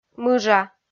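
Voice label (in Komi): "мыжа", Komi, female; kpv audio dict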